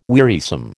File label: "wearisome", American